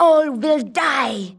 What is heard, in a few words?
Female; baldurs1